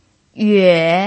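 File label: "yue3", Mandarin Chinese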